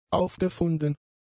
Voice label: "aufgefunden", German; adjectives